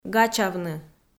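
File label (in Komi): "гачавны", Komi, female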